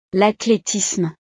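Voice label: "l athletisme", French; deportes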